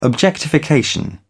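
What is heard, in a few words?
"objectification", British English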